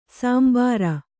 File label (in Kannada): "ಶಾಂಬರ", kannada